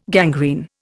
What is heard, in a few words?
"gangrene", Indian